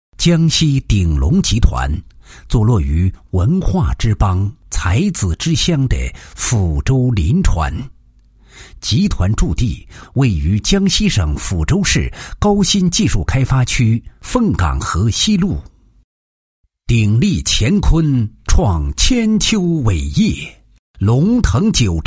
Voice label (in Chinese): 男 企业 集团宣传介绍; 鼎龙集团